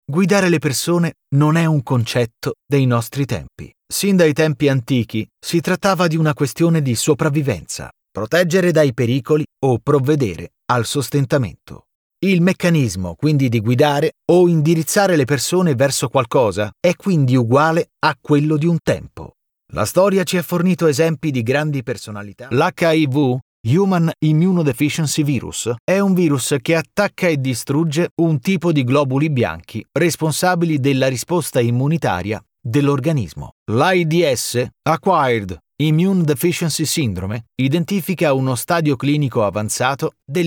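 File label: Italian, male, documentary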